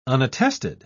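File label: "unattested", British English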